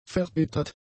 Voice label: "verbittert", German; adjectives

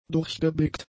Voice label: "durchgeblickt", German; adjectives

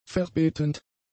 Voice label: "verbetend", German; adjectives

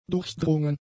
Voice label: "durchdrungen", German; adjectives

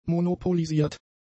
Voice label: "monopolisiert", German; adjectives

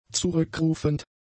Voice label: "zurückrufend", German; adjectives

